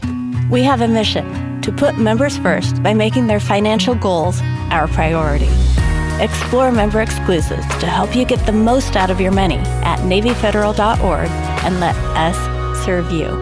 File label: Commercial